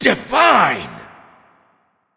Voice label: divine, Announcer Killstreak